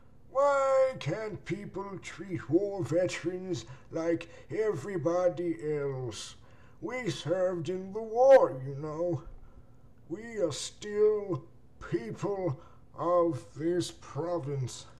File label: elder, unique voice auditions